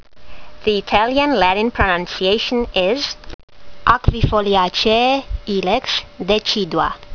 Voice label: latin; Ilex decidua